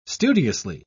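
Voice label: "studiously", English, uk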